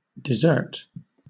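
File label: "desert", British English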